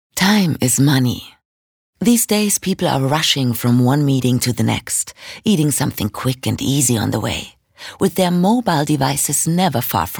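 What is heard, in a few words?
British English, Sanft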